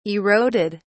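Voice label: "eroded", British English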